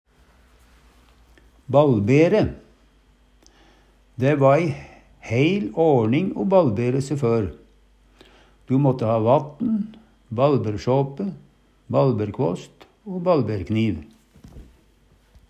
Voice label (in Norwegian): balbere, Numedalsmål; Dæ va ei heil årning o balbere se før. Du måtte ha vatt'n, balbersåpe, balberkvåst